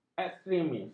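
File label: "ekstremis", Malay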